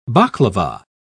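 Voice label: "baklava", British English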